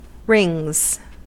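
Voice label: "rings", US English; pronunciations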